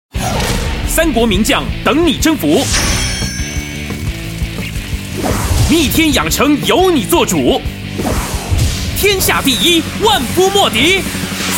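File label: Chinese Taiwan Male Commercial game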